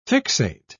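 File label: "fixate", British English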